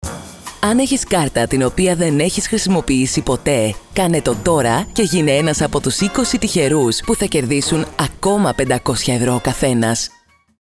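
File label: Greek Female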